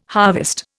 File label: "harvest", Indian